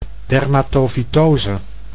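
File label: "dermatofytose", Dutch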